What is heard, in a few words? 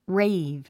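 "rave", English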